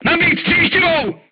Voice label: voice message load Frag, crew tanks czech